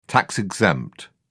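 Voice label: "tax-exempt", British English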